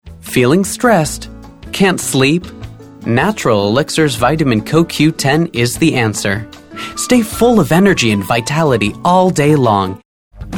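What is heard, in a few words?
American, TV commercial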